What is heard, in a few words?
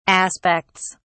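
"aspects", English, British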